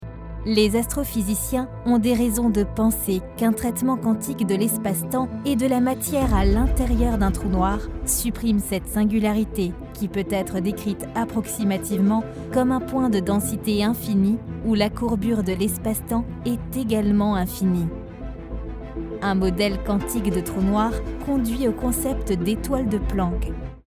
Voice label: Documentaire; Les trous Noirs – Voix posée, convainquante; ARTE

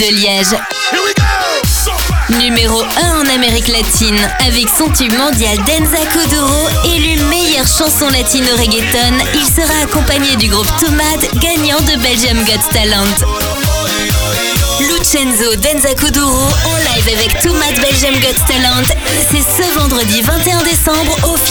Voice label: spot radio voix jeune dynamique; FUN RADIO

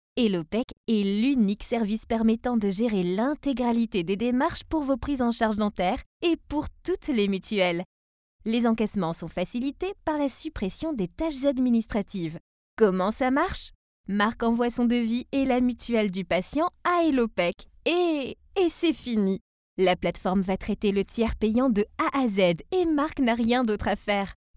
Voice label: Institutionnel; Voix jeune, souriante; Hellopec

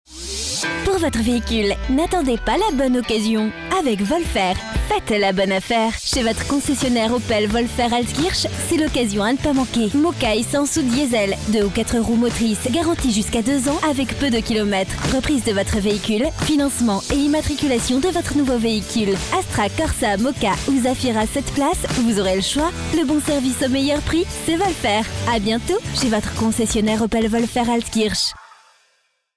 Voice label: voix off femme spot radio; opel wolfer

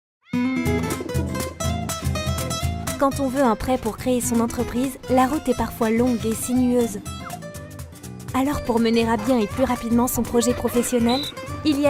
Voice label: pub tv voix off; adie

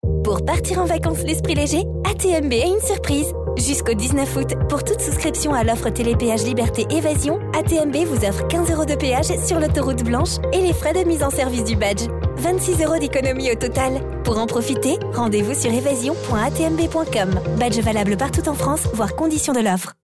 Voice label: Spot Radio; National ATMB

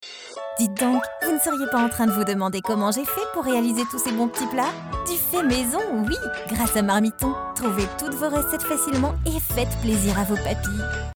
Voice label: Spot Radio, Voix enjouée, motivante; Marmiton

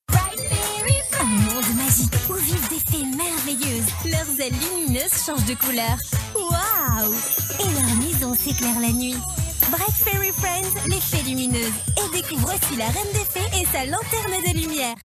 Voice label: Publicite TV; Bright Fairy Friends

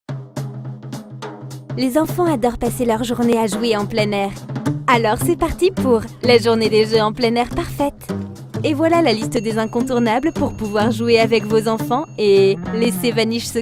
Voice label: Publicite PreRoll Voix Off feminine; Vanish

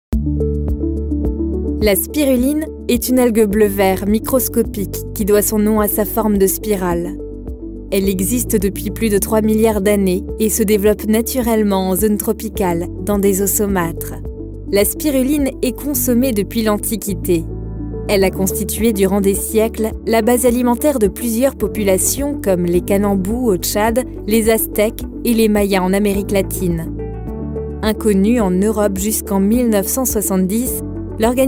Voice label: Reportage; L'histoire de la spiruline – Narration, voix douce, médium

